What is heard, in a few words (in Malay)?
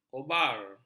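"kobar", Malay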